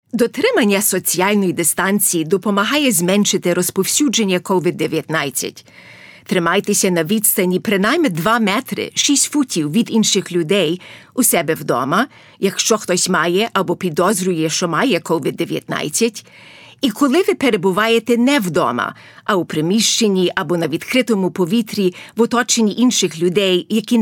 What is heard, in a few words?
PSA Ukrainian Key Times to Practice Social Distancing; CDC Covid; coronavirus 2019 ncov